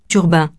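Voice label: "turbin", French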